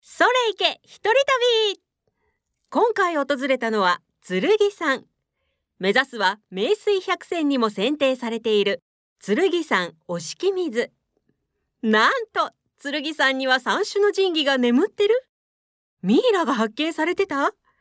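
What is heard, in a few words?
narration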